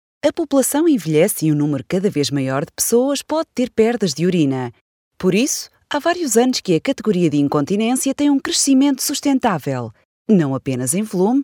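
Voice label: Portuguese commercial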